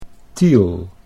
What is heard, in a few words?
"Tiel", Dutch